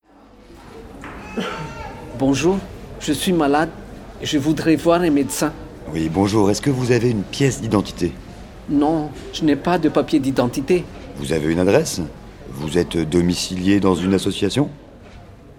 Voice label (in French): Dialogues a l accueil de l hopital; RFIAsile